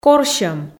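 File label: "корсьӧм", Komi, female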